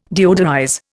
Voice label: "deodorize", Indian

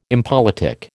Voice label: "impolitic", American

